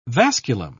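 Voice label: "vasculum", British English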